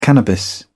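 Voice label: "cannabis", British English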